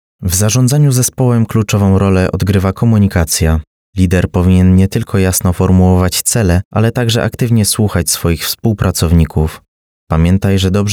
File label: e-learning